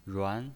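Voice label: "ruan", Mandarin Chinese pinyin voice, second tone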